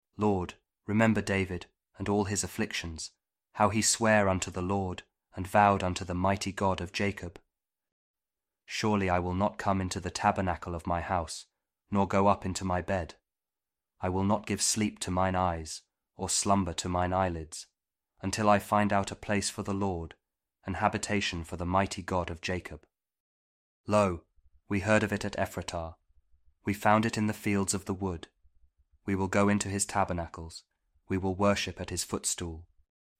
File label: psalm kjv king james audio bible